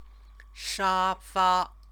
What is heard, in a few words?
"shafa", Chinese